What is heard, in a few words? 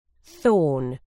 "thorn", uk